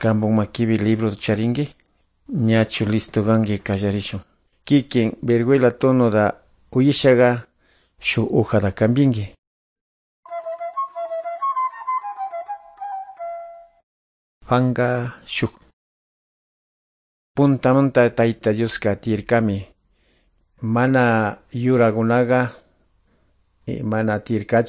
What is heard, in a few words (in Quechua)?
good news quichua de calderon